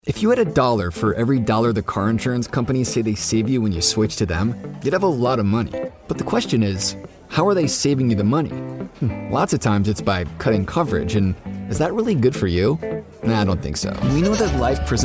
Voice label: Conversational